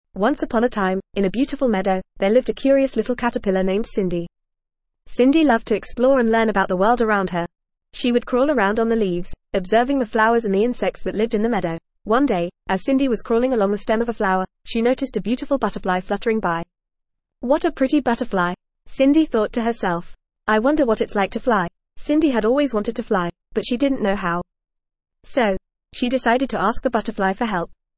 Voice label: ESL Short Stories for Kids FAST reading; Cindy the Curious Caterpillar